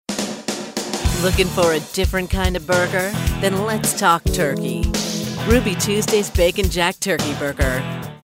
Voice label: Edgy

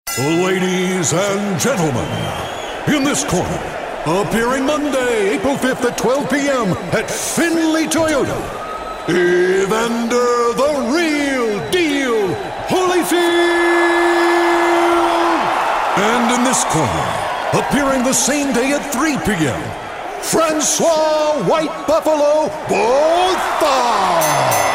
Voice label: Sportscaster

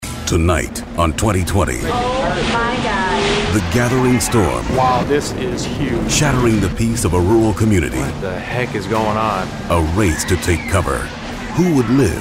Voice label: News Promo

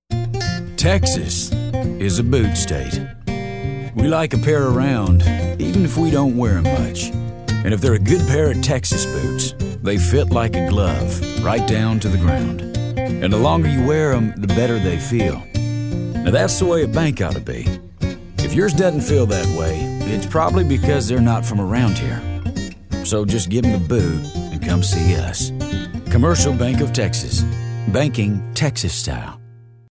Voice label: folksy

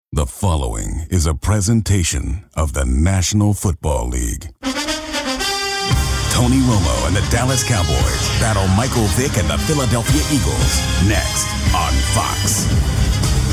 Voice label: Sports Promo

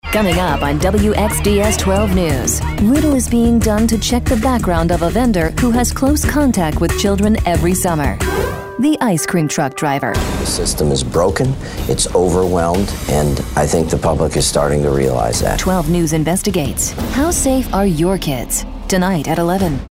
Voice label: News Promo

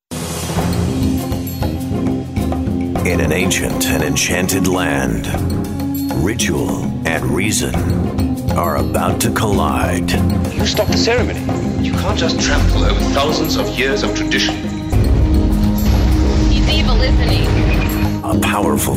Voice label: Trailer

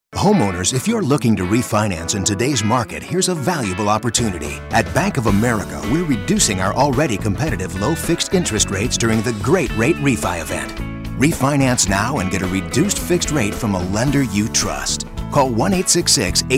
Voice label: Soft Sell

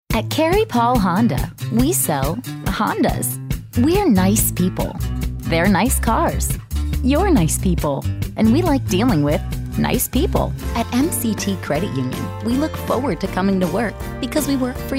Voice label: Friendly

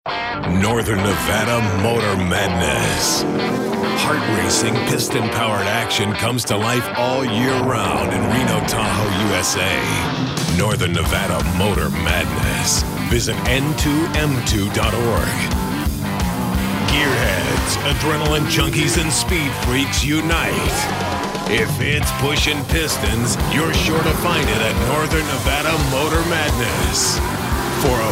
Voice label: Edgy

